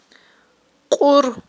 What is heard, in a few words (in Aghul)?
"кьур", Aghul